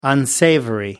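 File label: "unsavory", English, British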